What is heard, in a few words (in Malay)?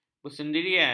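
"bersendirian", Malay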